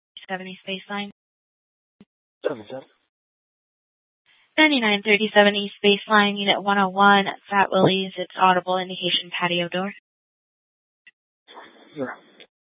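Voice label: Mesa Police Department Superstition Dispatch; Mesa PD; 4 Superstition Dispatch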